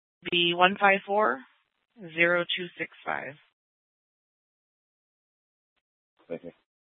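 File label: Police Department Dispatch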